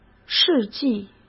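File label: "世纪", Mandarin Chinese; chinese flashcards; hsk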